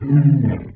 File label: Zombie